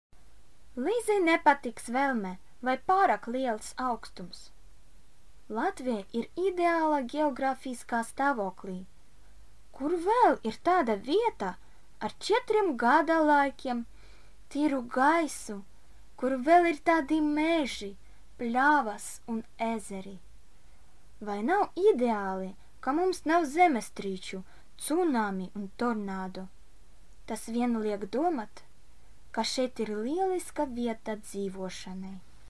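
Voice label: LV General, Latvian; GoLocalise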